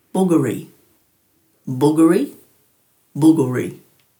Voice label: "buguri", Dhurga